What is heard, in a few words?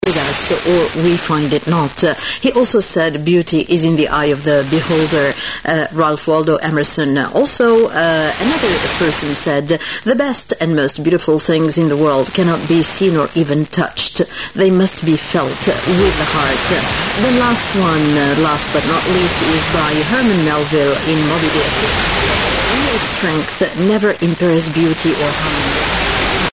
tunisia english